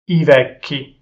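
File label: "i vecchi", Italian pronunciation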